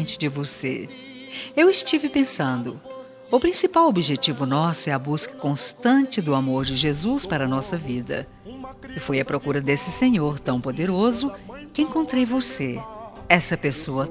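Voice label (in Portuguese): aniversario evangelico sogra feminino, telemensagem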